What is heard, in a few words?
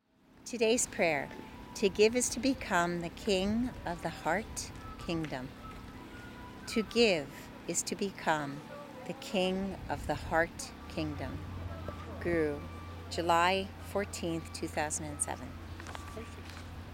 Poem